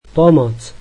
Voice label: Help, slovak

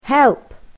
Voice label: "Help", english

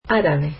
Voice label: Arabic

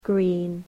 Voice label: "green", english

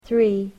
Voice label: "three", English

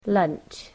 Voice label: "lunch", english

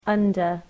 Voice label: "under", English

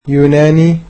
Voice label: "Greek", egyptian

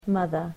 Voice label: "mother", english

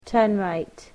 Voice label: "Turn right", English

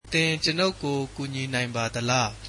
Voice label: Can you help me, burmese

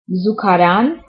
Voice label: "toilet", Armenian

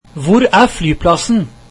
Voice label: Where is the airport, norwegian

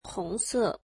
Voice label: "red", mandarin

